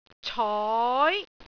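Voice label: "coi2", Cantonese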